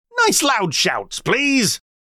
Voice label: call nice loud shouts please; Bouncy Bingo Balls; Eyecon